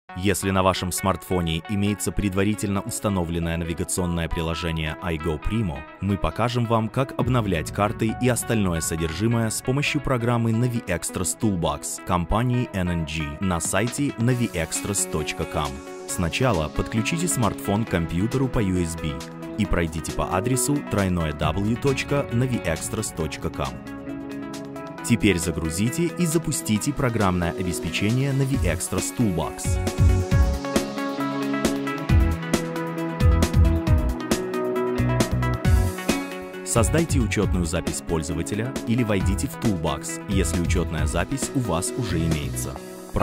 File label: Tutorial Video for Smartphones Russian Voice Over; Naviextras